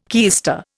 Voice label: "keister", Indian

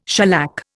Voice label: "shellac", Indian

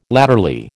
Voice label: "latterly", American

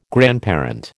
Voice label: "grandparent", American

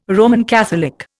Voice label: "Roman Catholic", Indian

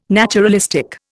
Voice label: "naturalistic", Indian

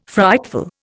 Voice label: "frightful", Indian

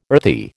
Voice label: "earthy", American

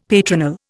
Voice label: "patronal", Indian; Dictionary

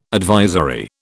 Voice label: "advisory", British

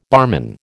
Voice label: "barman", American

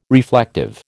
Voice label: "reflective", American

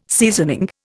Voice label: "seasoning", Indian

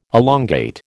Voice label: "elongate", American

